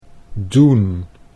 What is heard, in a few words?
"doen", Dutch